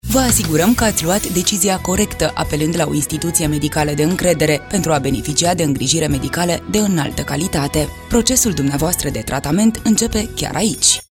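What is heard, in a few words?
Romanian corporate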